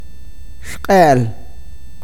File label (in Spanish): broma, awakateko